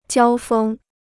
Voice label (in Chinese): "交锋", Chinese